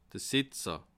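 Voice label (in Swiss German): "setzer", Bas Rhin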